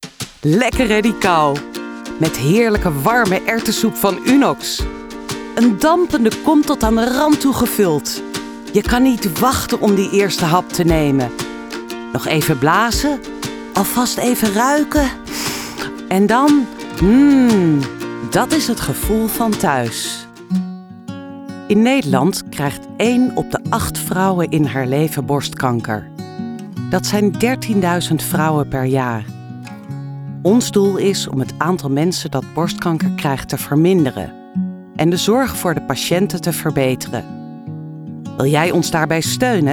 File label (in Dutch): Commercial, voice over